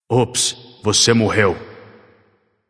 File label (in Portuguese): notify slain you, vox caster portuguese